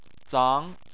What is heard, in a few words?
"zang2", Chinese